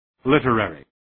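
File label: "literary", English word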